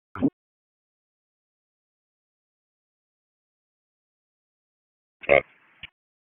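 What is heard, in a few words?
Public Safety Tactical, p25; wake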